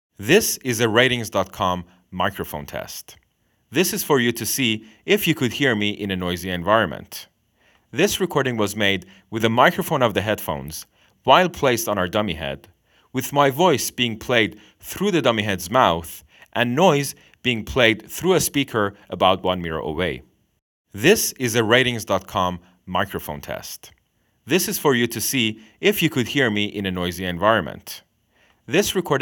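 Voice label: bt internal mic subway, gaming headset; Swedish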